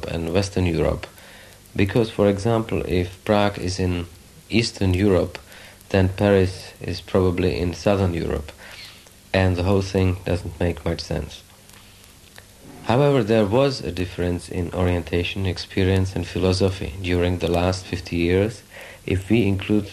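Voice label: CZECHOSLOVAKIA, Accents of English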